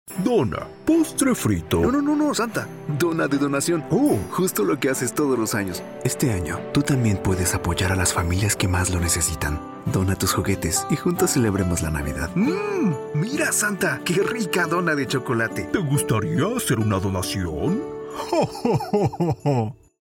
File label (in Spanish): caracterizacion santa y loc inst cercano